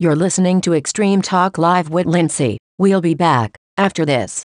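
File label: talk live break in female voice; extreme talk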